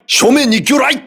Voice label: voice message torpedo, crew ships japanese